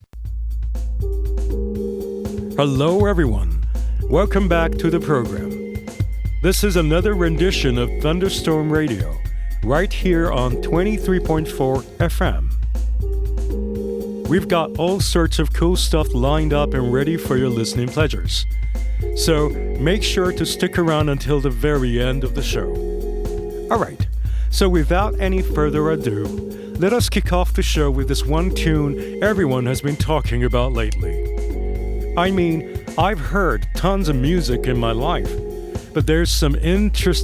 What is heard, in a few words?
DJ English